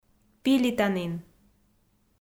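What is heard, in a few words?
"пилитанін", Komi, female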